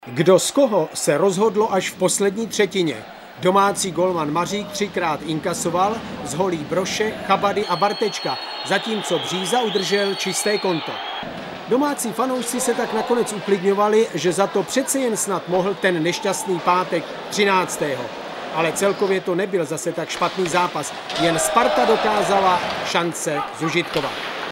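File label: male Czech IVR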